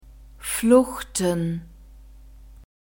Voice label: "fluchten", German